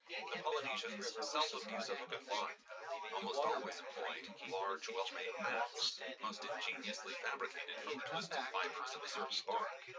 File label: male synthconv